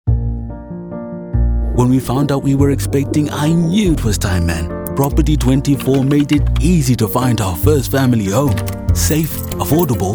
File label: English South African, Male; voice-clips-database